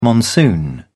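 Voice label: "monsoon", British English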